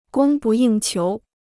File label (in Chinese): "供不应求", Chinese